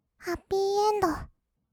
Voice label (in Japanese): "ハッピーエンド", kawaii voice; ASMR; 色々なワンショット